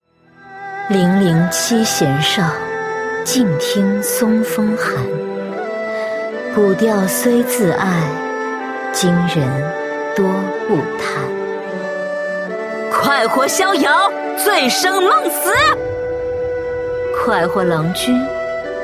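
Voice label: female middle-aged and elderly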